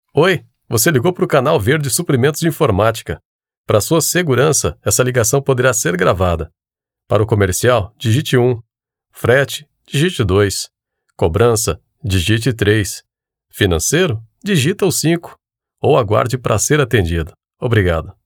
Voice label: Portuguese Brazilian